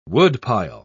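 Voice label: "woodpile", English word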